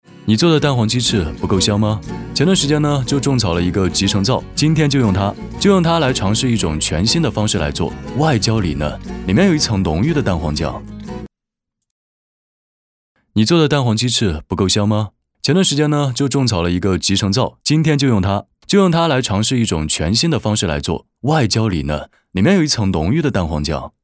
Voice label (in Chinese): 美食视频清新温暖; 蛋黄鸡翅